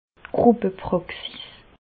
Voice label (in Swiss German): "gruppe", Bas Rhin; medecine; médecine de groupe